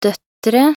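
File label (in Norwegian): "døtre", Norwegian; talkbook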